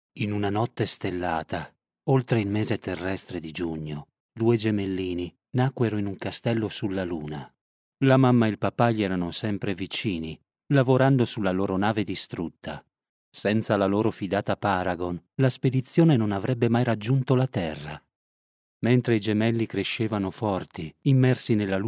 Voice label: male italian voice over talent narrative